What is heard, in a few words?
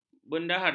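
"bendahara", Malay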